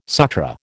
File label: "Sutra", American